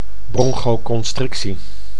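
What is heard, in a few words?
"bronchoconstrictie", Dutch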